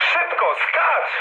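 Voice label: bailout, mood high, Polish speech; War Thunder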